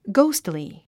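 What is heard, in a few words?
"ghostly", 英単語 発音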